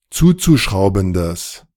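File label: "zuzuschraubendes", German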